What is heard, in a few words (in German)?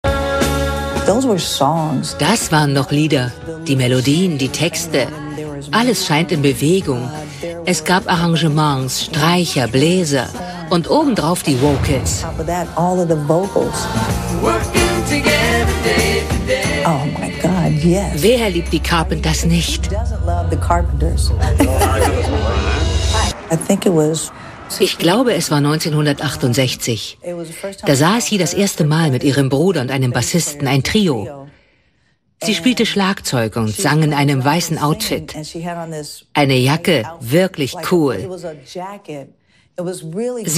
VOICE-OVER TV-Doku; Too young to die